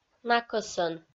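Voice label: "накӧсӧн", Komi-Zyrian, female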